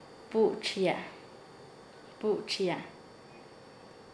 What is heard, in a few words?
"ayudar", Nasa Yuwe; paez